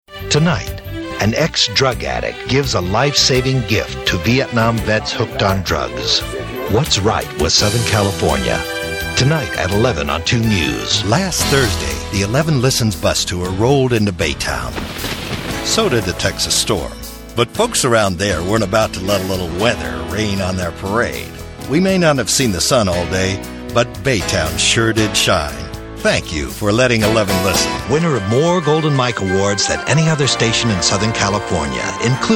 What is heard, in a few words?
Promo